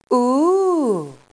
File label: GIRL